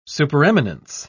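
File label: "supereminence", English, uk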